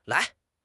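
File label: "lai2", Mandarin Chinese; HSK1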